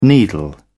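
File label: "needle", British English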